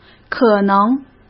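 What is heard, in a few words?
"可能", Mandarin Chinese; hsk; chinese-flashcards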